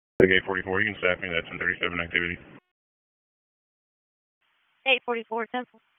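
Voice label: Sheriff's Office Dispatch; WSO DISP 1; wake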